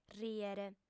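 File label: "rìere", female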